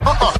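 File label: "uh uh", male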